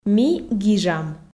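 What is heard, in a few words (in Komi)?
"ми гижам", Komi, female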